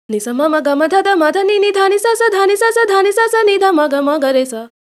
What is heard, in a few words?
75, timestretch, voice